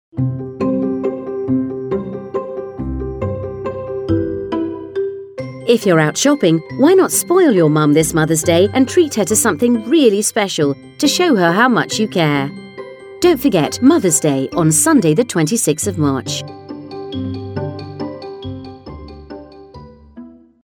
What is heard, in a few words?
mothers day female